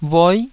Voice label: "voi9", taiwanese

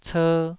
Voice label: "cer2", taiwanese syllables

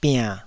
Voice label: "biann3", taiwanese syllables

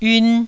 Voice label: "yun1", taiwanese Syllables

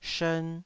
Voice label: "shern2", taiwanese

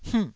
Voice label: "hmh4", Taiwanese syllable

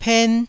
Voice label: "pen1", Taiwanese

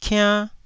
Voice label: "kiann1", taiwanese syllables

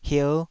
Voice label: "hier5", taiwanese

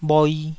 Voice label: "bhoi2", taiwanese Syllables

